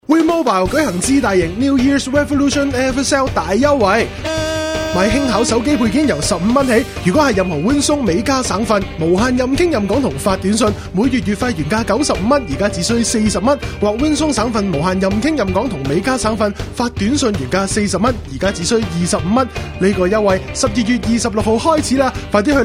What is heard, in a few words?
Chinese; WIND Mobile; mother deal